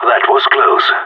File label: round won close, radio; GSG9